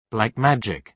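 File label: "black magic", British English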